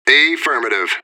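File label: radio bot response positive; fbihrt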